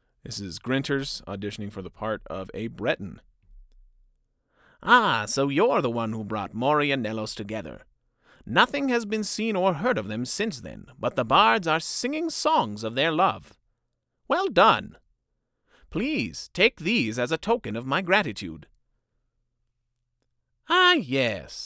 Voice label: breton, voice auditions